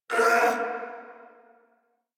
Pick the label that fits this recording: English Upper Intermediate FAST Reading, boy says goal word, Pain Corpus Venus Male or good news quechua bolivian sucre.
Pain Corpus Venus Male